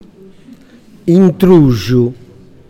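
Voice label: "Intruxu", Sardinian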